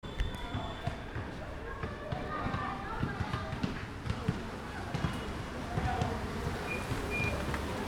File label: voice ambient, Kathmandu Ambient sounds